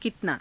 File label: "kitnaa", Hindi; ［形/副］いくつ，どのくらい; कितना